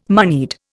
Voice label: "moneyed", Indian

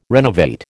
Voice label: "renovate", American

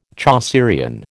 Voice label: "Chaucerian", American

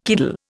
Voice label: "kiddle", Indian

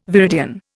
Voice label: "viridian", Indian

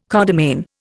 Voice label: "codomain", Indian

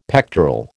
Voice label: "pectoral", American

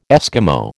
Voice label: "Eskimo", American

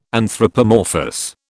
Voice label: "anthropomorphous", British

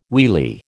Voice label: "wheelie", American

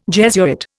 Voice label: "Jesuit", Indian

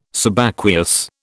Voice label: "Subaqueous", British

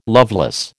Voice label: "loveless", American

